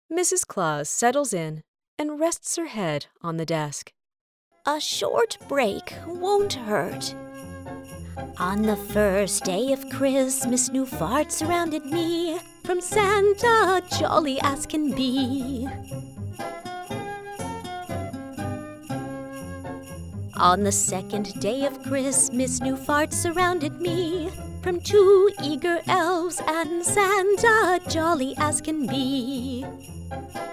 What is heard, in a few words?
Audiobook; Fartrageous